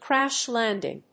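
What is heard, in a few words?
"crash landing", English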